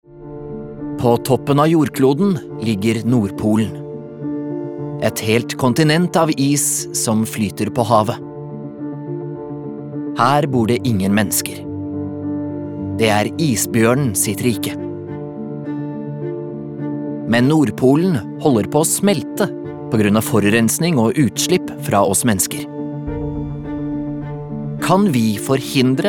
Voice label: no documentary